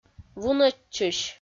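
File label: "вунӧдчысь", Komi, female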